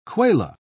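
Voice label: "kwela", British English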